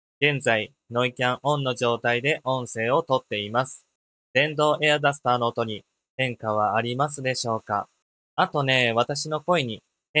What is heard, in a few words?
fifine m9 microphone